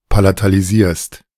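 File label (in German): "palatalisierst", German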